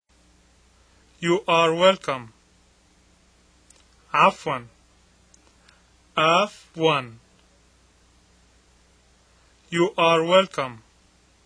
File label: Arabic Welcome; アフワン; アラビア語; どういたしまして